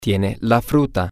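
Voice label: Spanish, male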